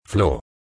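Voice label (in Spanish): "flo", Spanish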